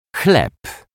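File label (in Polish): "chleb", Polish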